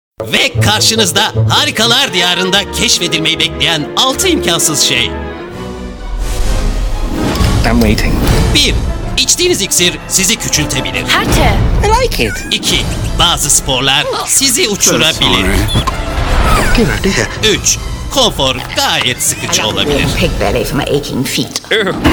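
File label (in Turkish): tanıtım, Turkish; alice in wonderland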